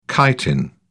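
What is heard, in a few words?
"chitin", English, British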